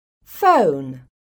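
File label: "phone", English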